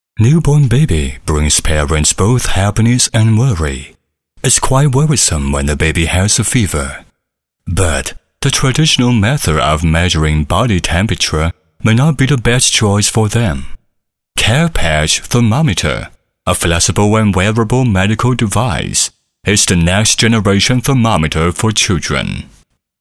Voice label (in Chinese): 男英 外语 国内英语 知性风格